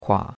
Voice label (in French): "kua3", chinois; Cours-de-Chinois